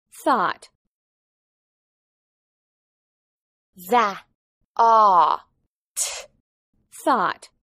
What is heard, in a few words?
"thought", English